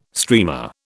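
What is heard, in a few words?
"streamer", British English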